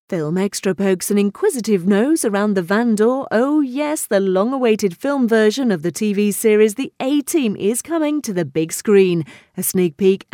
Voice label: Continuity